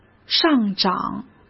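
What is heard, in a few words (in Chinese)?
"上涨", Mandarin Chinese; hsk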